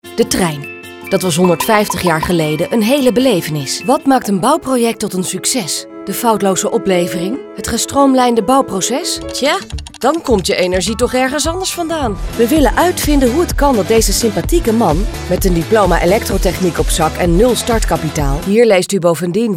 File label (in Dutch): stemdemo Corporate